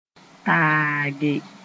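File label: "taage", Mooré; wipe off against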